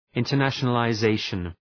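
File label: "internationalization", English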